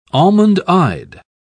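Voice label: "almond-eyed", British English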